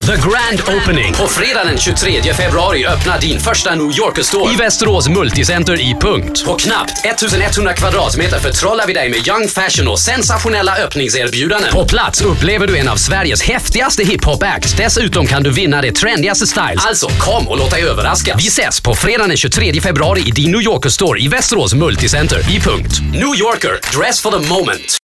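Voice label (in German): schwäbisch; new yorker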